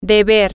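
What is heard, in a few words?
female, spanish; should ought to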